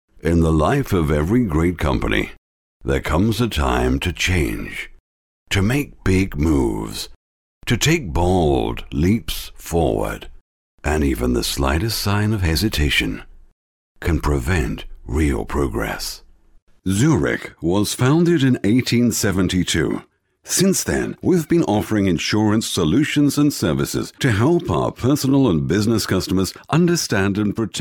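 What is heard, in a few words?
British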